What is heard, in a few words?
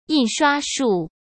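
"印刷术", Mandarin; chinese-flashcards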